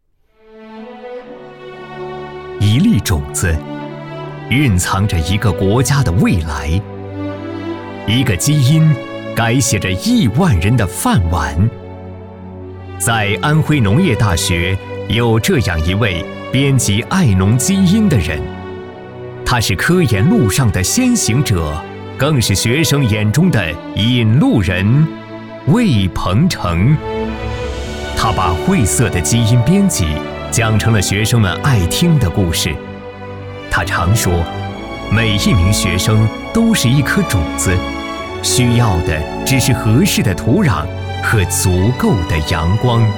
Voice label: 男 教师类

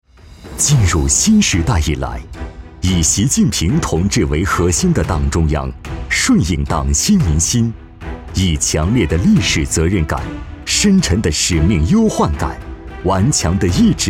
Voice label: 男 企业 厚重 大气; 中铁一局集团

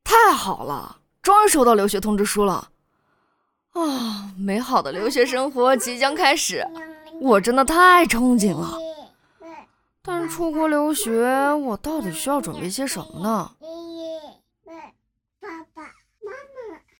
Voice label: 女 仿男童 青少年13岁左右

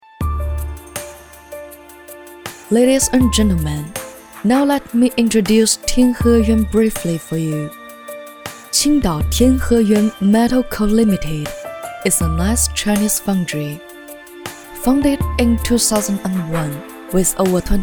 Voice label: 女 英文 宣传 解说

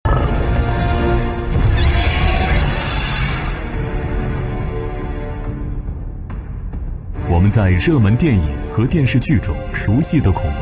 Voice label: 男 纪录片

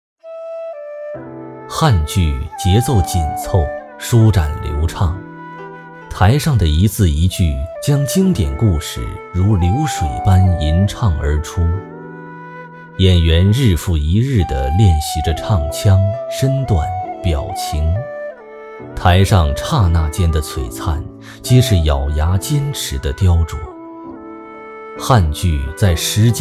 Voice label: 男, 纪录片; 汉腔回响

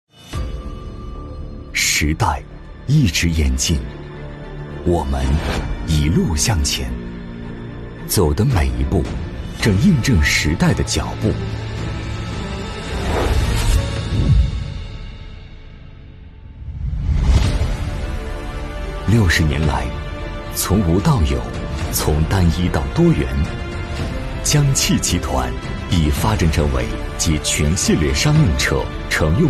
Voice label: 男 汽车 宣传片; 江汽集团宣传片

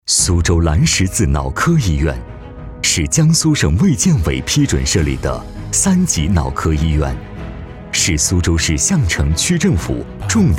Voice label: 男 医院 沉稳 厚重; 苏州蓝十字

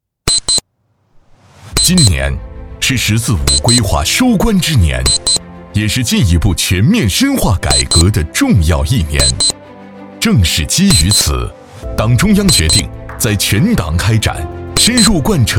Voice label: 男 党建宣传

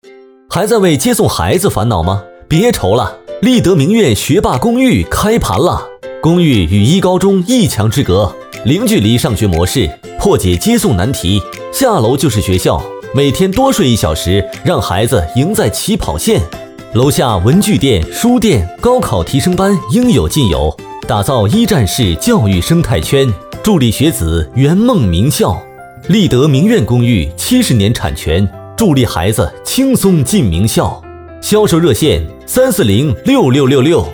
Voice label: 男 轻松欢快; 立德名苑

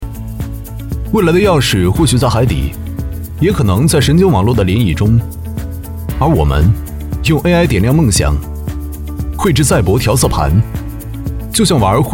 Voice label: 男 旁白 活力自然

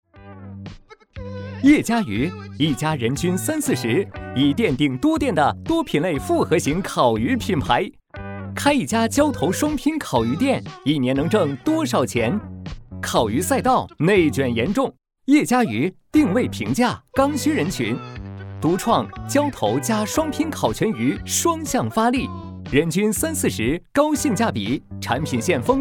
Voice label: 男 加盟类

